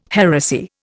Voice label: "heresy", Indian